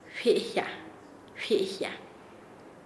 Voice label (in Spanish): "escribir", Nasa Yuwe; paez